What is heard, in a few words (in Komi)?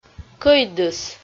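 "кӧйдыс", Komi, female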